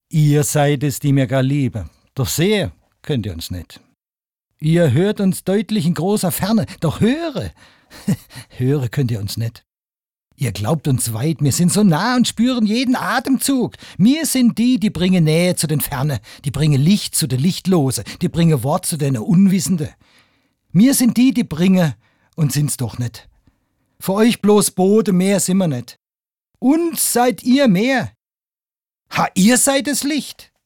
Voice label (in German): Dialekt badisch; maenner